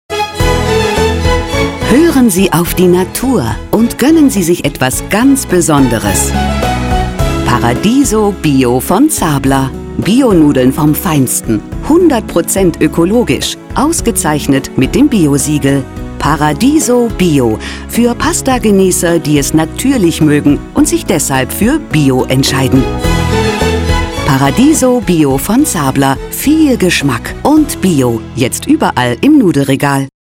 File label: Radio Spot; ParadisoBio